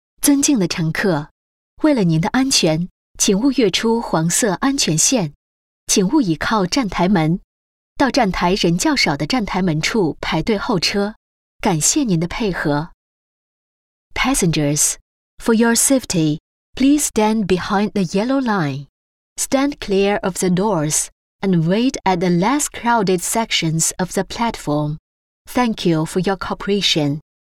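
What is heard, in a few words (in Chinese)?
轨道交通中英播报; 兰州轨道交通二号线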